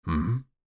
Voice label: old man; Fruity Burst 2